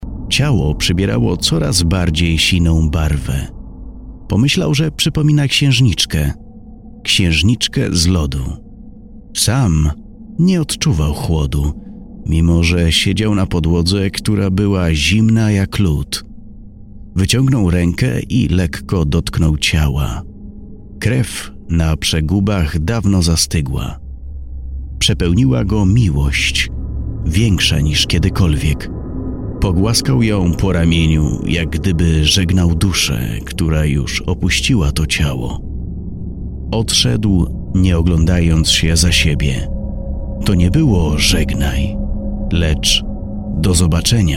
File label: audiobook